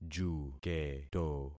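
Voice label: "juketo", concatenated syllables; Affixing experiment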